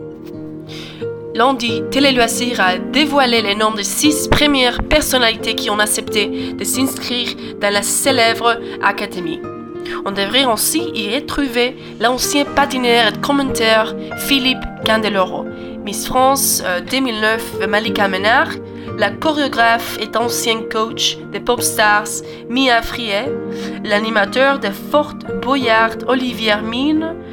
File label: Français NARRATIVE